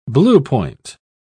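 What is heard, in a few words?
"bluepoint", British English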